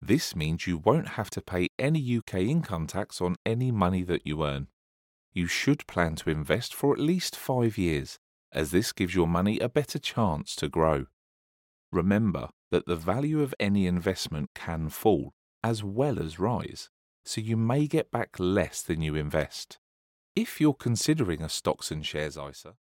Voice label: Elearning